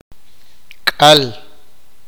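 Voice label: "raíz", sipakapense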